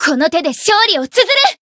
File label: chant, jp